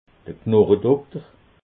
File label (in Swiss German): "knochedokter", alsace